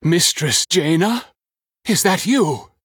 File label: Minion Footman Male Human Play